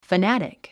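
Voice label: "fanatic", English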